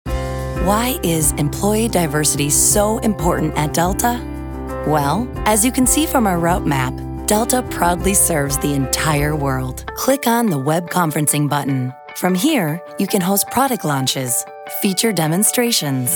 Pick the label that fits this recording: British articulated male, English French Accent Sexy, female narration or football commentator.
female narration